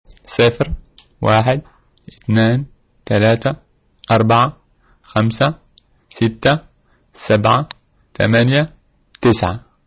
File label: count arabic